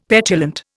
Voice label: "petulant", Indian; Dictionary